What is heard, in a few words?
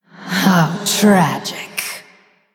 Female Blood Elf, Oops